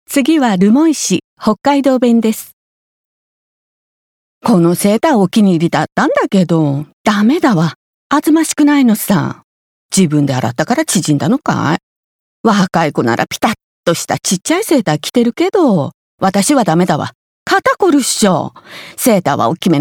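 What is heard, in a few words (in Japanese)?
方言, 北海道弁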